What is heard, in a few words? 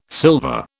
"silver", British